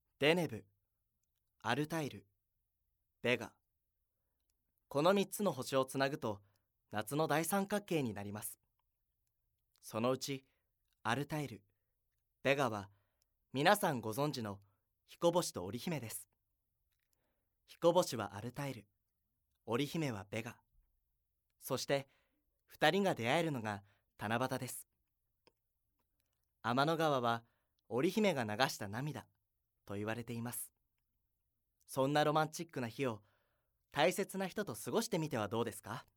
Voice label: narration